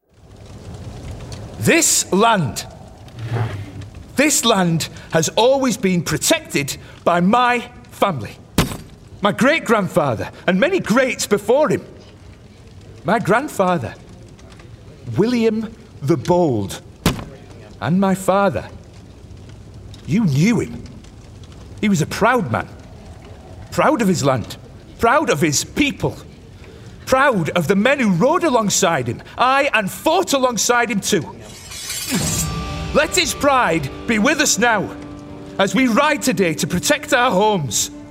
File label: Gaming Warrior Leader Yorkshire